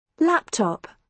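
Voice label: "laptop", British English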